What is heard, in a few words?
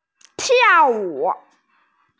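"tiao4wu3", Mandarin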